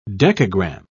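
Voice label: "decagramme", English, British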